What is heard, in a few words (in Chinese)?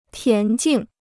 "田径", Chinese